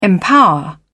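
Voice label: "empower", British English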